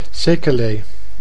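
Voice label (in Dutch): "sequelae", Dutch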